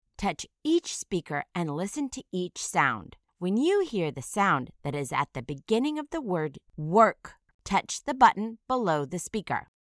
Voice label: "work", initial phoneme isolation